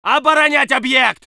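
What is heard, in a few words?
voice soviet infantry; Red Orchestra 41-45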